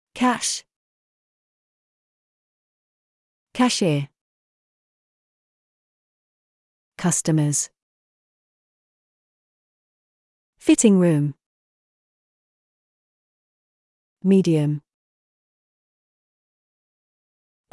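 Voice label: British; shopping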